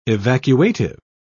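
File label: "evacuative", British English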